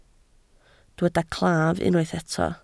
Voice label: "claf", Welsh

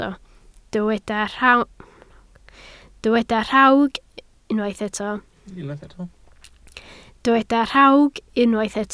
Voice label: "rhawg", Welsh

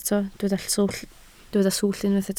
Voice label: "swllt", Welsh

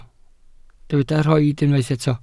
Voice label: "rhoid", welsh

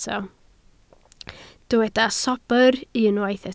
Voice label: "sobr", Welsh

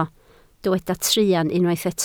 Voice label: "truan", Welsh

